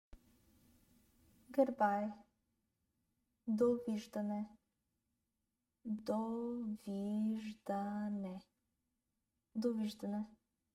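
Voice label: "Good bye", Bulgarian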